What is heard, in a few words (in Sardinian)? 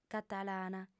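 "catalana", female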